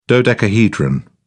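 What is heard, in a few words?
"dodecahedron", British English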